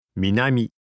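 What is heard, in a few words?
"minami", Japanese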